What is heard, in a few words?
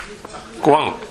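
"gowan", Minamiyamagata dialect Japanese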